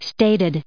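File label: "stated", US English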